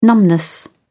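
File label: "numbness", British English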